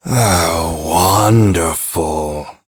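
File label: Ambient Male Sweeper, Dialog; Duviri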